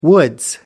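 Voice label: "woods", British English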